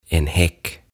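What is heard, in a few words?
"En häck", "Pensasaita", Swedish, Finnish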